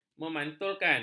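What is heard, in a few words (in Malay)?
"memantulkan", Malay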